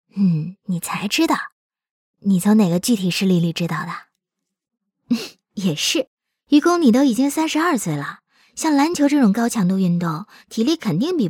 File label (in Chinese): 女 角色 影视剧 女明星